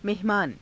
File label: "mehamaana", Hindi